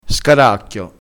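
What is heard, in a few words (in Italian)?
"scaracchio", dialettale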